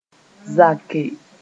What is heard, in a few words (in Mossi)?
"zake", moore